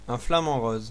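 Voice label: pink flamingo, French; animals; birds; tuxpaint-stamps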